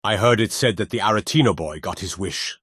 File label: Dialogue, Male Nord Commander; Skyrim Special Edition; Windhelm Dialogue Expansion